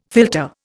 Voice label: "philtre", Indian; Dictionary